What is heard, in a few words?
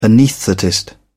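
"anaesthetist", British English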